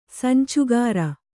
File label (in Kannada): "ಸಂಚುಕಾರ", Kannada